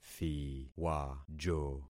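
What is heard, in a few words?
"thiwajo", concatenated syllables; Affixing experiment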